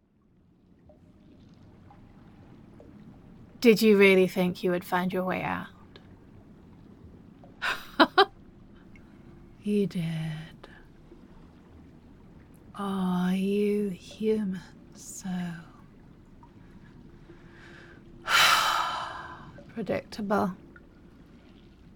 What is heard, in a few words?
Mermaid Roleplay; Oceanid; Escape Attempt; Deep Dark Ocean; Duplicity; An Aching Want